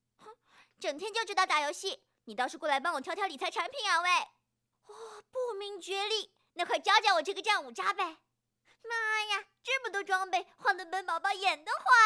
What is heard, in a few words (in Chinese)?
理财产品动画片小孩声儿